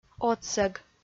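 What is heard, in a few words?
"отсӧг", Komi-Zyrian, female; audio dict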